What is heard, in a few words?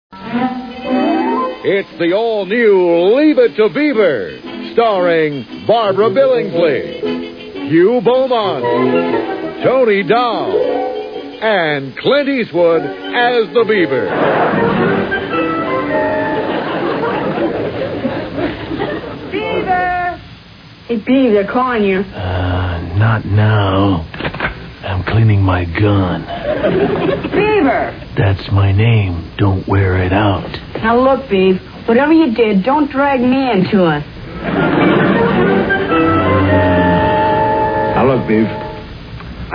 Commercials; Freekin Weird Audio